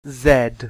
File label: Z (british )